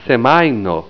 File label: "semajno", esperanto